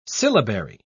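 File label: "syllabary", British English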